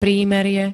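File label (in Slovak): "prímerie", Slovak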